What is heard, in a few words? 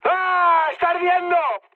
on fire, mood high, speech; War Thunder